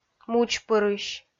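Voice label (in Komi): "мудзпырысь", Komi, female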